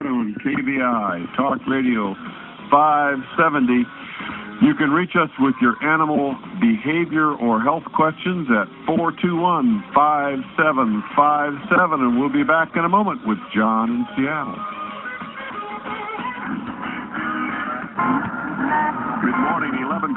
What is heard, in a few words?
Talkradio traffic; KVI; 570